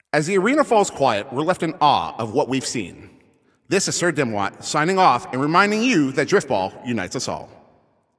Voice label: Announcer Game Ends